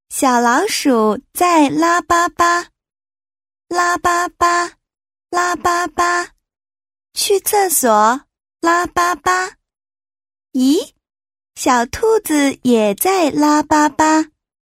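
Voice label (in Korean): 중국어 차분/편안; Voice actor sample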